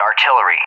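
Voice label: "artillery", English, USA; DCS; Allied Flight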